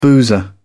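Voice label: "boozer", British English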